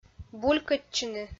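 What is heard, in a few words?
"булькӧдчыны", Komi, female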